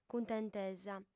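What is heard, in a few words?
"cuntentesa", female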